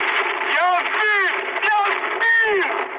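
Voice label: Russian aircraft destroyed mood high, speech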